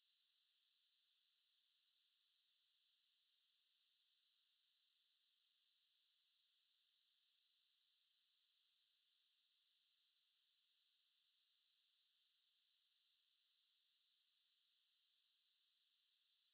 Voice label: 911 call